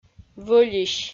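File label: "вӧлись", Komi, female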